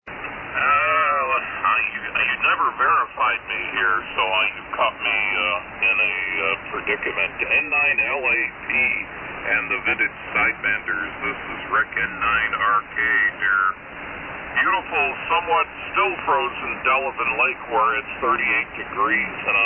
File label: Hallicrafters HT-32B & SX-101MKIII D-104 Mic & Dentron Clipperton Amp; Delavan,Wi.